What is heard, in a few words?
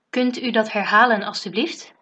say again, dutch